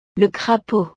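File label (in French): "le crapaud", French; el sapo; Les amphibiens (los anfibios)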